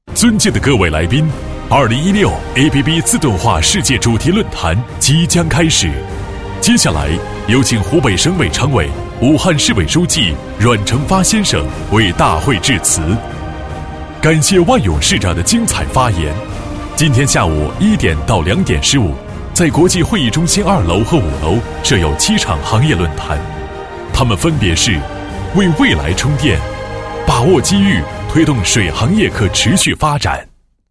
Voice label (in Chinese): 男, 晚会主持; ABB自动化世界主题论坛晚宴主持语录音